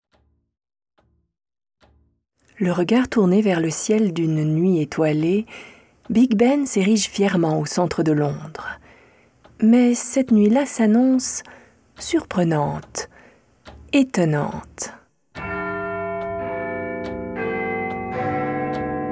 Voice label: Narration spectacle